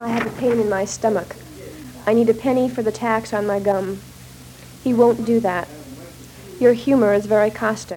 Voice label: Reading samples of 22 year old white female Wakefield Michigan; american english dialect